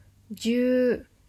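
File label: "juu", Japanese; ジュー – jyuu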